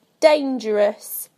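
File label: "dangerous", British English; PictureDictionary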